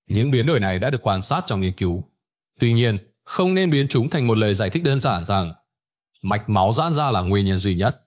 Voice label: narration